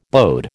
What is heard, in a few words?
"bode", American